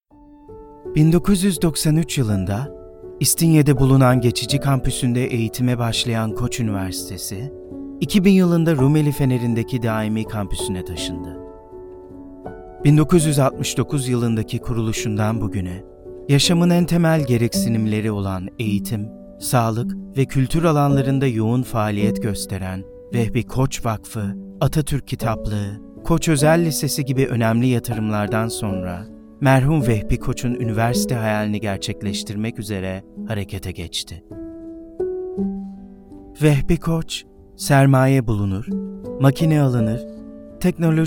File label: turkish corporate videos